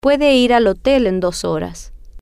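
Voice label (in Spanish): Puede Ir Hotel Dos Horas, spanish, female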